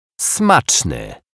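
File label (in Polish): "smaczny", Polish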